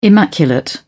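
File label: "immaculate", British English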